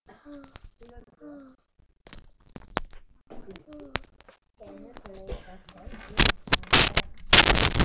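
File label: "hold", spoken word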